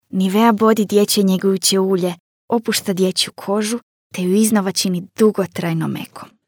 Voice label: commercial soft kids, croatian

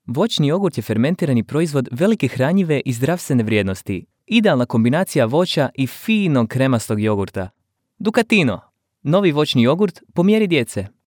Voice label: commercial kids, croatian

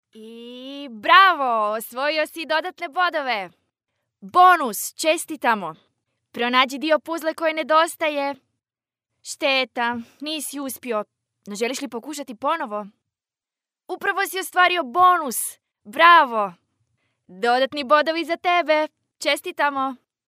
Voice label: game, croatian

